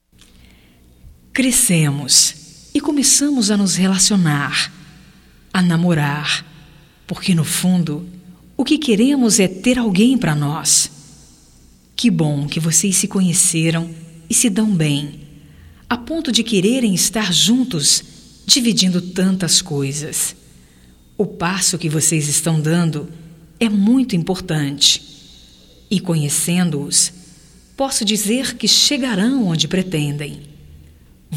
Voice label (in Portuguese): HOMENAGEM AOS NOIVOS, fem